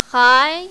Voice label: "hai2", mandarin, female

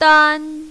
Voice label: "dan1", mandarin, female

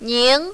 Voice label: "ning2", mandarin, female

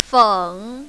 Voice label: "feng3", mandarin, female

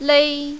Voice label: "lei5", mandarin, female

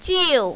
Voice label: "jiu4", mandarin, female

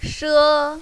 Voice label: "she1", mandarin, female

